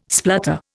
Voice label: "splutter", Indian